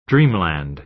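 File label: "dreamland", American English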